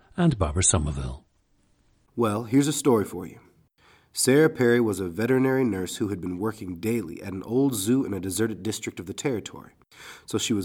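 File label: georgia, International Dialects of English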